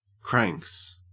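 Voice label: "cranks", Australian English; pronunciations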